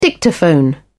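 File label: "dictaphone", British English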